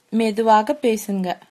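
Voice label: slowly, tamil, female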